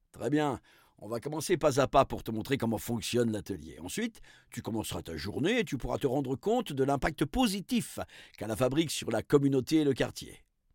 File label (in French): narrateur; La-Fabrik; intro apres prenom